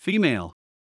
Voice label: FEMALE